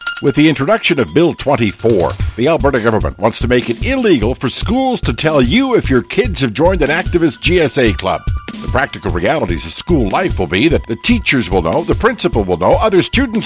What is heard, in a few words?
radio ad; stop bill 24